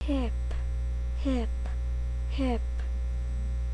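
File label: "hip", spoken word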